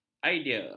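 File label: "idea", Malay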